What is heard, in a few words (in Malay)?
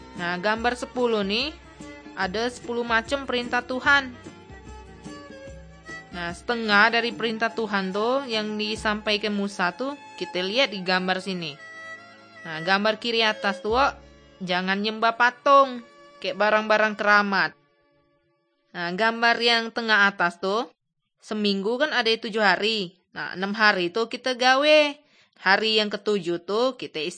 kabar baik good news malay bangka